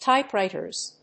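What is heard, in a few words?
"typewriters", English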